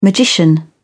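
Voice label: "magician", British English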